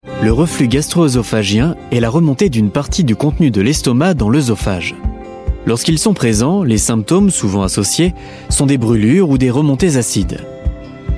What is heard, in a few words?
french voice over male elearning